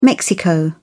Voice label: "Mexico", spoken

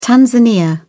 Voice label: "Tanzania", spoken; africa

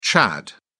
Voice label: SPOKEN Chad; africa

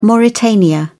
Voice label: SPOKEN Mauritania; africa

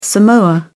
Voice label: "Samoa", spoken; oceania

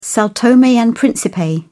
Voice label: SPOKEN Sao Tome and Príncipe; africa

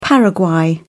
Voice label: SPOKEN Paraguay; south-america

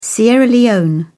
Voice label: "Sierra Leone", spoken; africa

